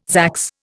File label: "zax", Indian